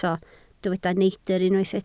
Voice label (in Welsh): "neidr", Welsh